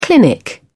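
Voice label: "clinic", British English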